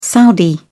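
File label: "saudi", English, British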